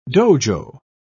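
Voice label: "dojo", British English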